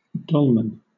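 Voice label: "dolman", British English; pronunciations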